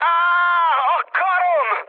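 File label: Hungarian damaged pilot mood high, speech; War Thunder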